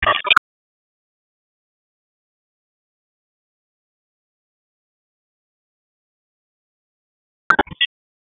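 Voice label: Raleigh Police North District Tactical, p25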